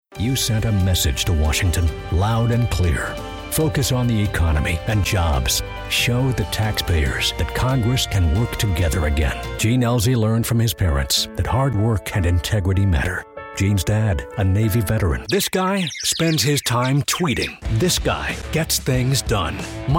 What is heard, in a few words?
Political Positive